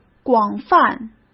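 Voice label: "广泛", Mandarin Chinese; hsk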